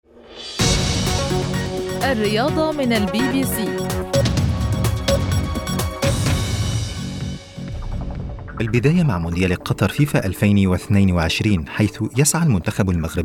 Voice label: arabic sports